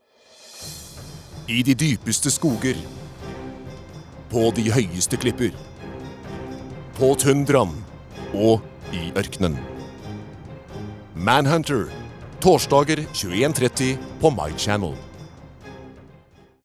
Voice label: no dramatic